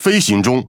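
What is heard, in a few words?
Chinese (Taiwan) Speech Pilots Bomber Airborne; ZH TW; World Of Warships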